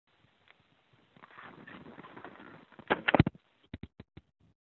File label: Voicemail